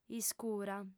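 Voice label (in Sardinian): "iscura", Sardinian, female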